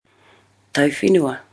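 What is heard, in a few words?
"tauwhenua", Māori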